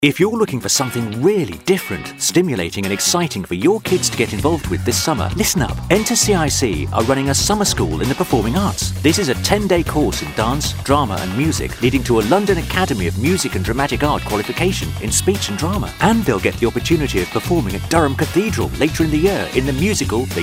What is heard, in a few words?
RADIO PLAY 30 second commercial